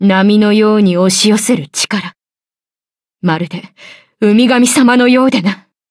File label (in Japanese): levelup, ja; cookie run